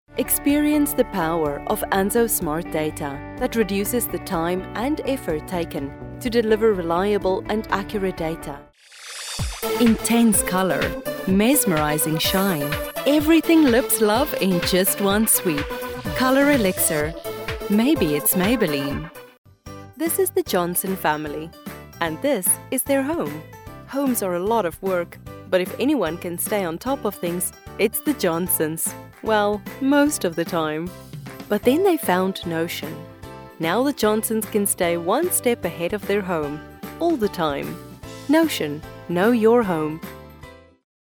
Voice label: Short commercial

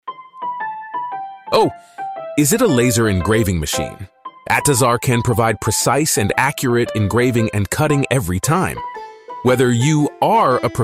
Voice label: funny narration

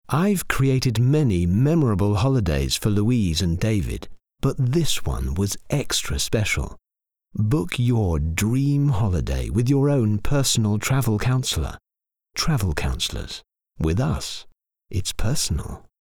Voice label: Commercial Travel

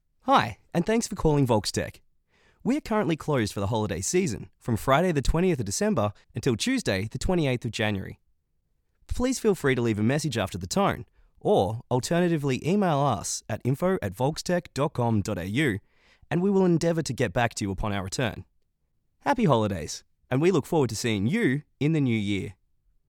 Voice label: IVR Xmas; Volkstech

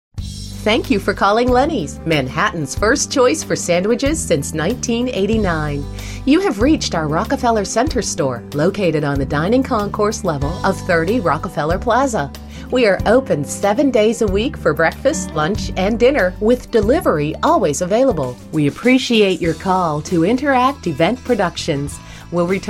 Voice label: ON HOLD

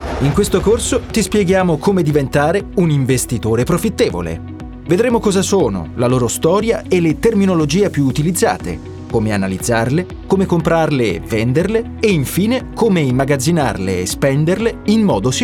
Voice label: E Learning

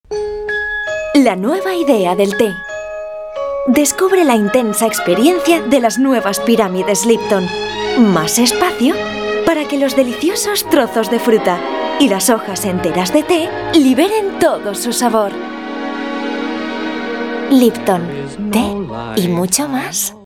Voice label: Spot; Lipton

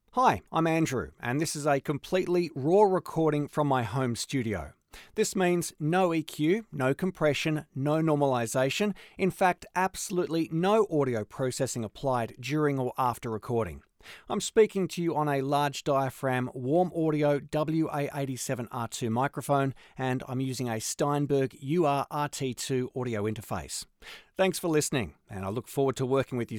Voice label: RAW Studio

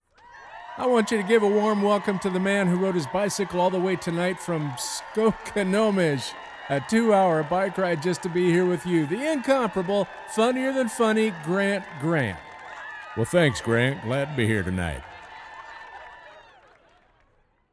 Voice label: Live Announcer

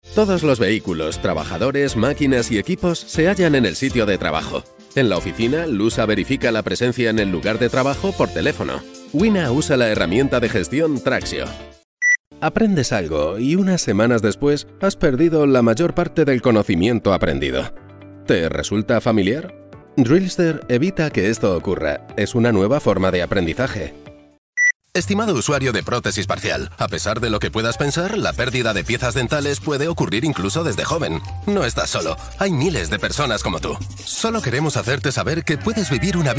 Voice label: Spanish EU explainer

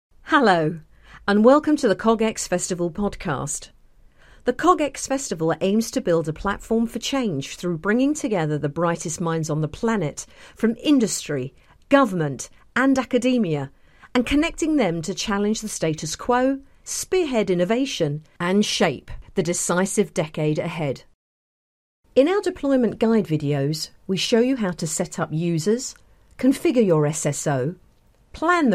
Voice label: dry read

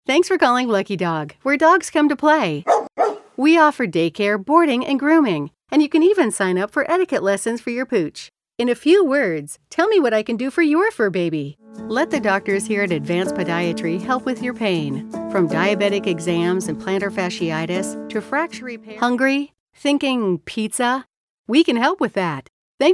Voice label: On Hold IVR

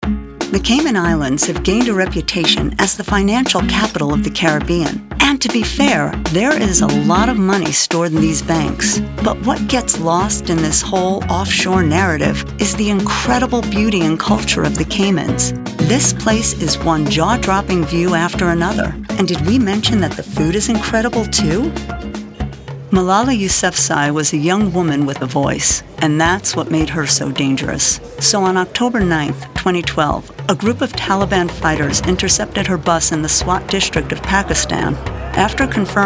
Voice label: Narration

